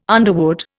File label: "underwood", Indian